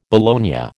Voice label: "bologna", American